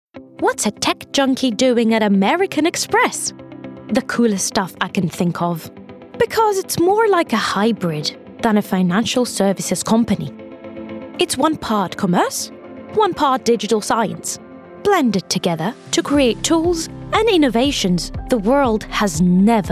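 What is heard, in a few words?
Corporate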